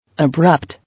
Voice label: "Abrupt", English